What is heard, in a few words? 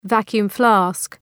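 "vacuum flask", English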